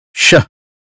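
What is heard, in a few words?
American phonetics sound she; 英语音标发音（剑桥版）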